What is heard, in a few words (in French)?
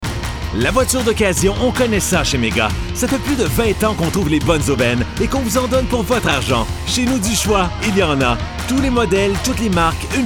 Musique et Jingle; Mega automobile